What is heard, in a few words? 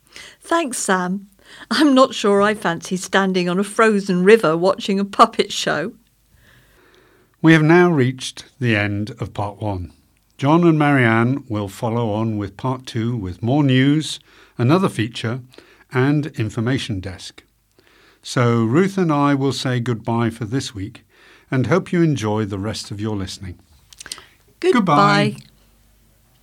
Closing Announcement; Chatterbox; Norwich Evening News